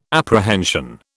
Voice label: "apprehension", British